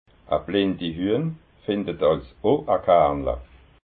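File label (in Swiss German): "blindi", Alsatian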